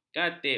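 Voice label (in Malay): "katib", Malay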